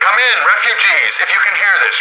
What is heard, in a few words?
radio initial broadcast; Left 4 Dead 2; left4dead2 dlc3; boatman; npc